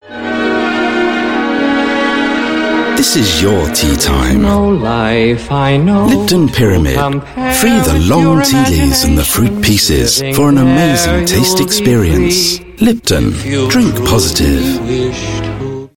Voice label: UK English, English UK Male; Lipton